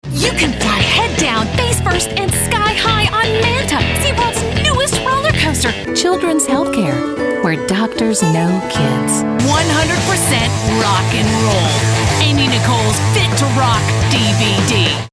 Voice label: US commercial

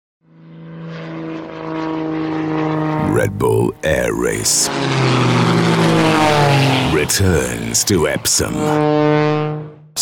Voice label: uk showreel

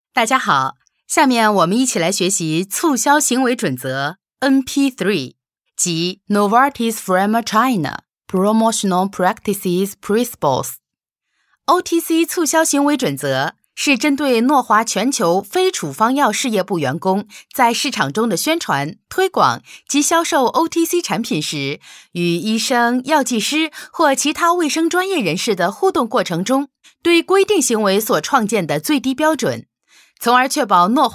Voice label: cn elearning

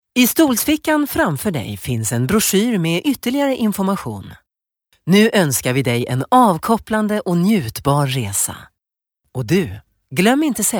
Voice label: se informative

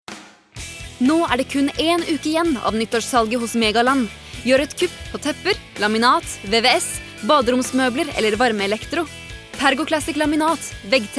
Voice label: no hard sell

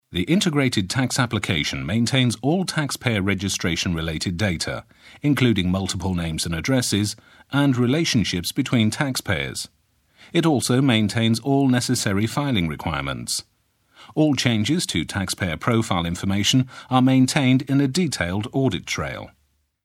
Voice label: uk informative

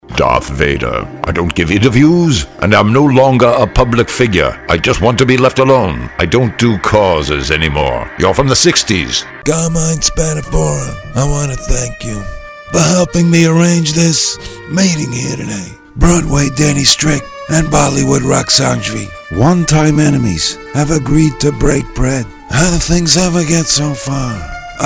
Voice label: us characters

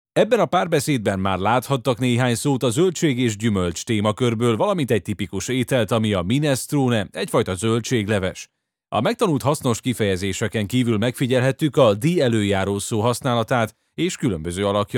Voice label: Hungarian e-learning

